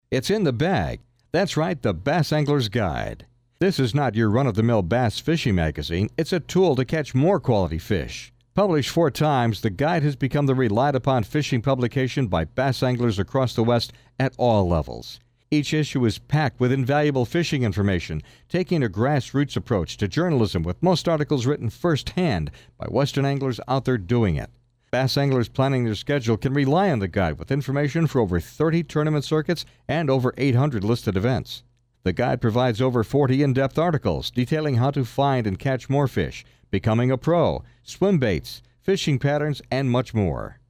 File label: Radio Ad